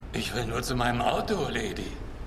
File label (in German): Mann Im Parkhaus; City On A Hill